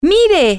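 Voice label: look, Spanish, female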